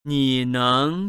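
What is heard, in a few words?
"ni3 neng2", Chinese, male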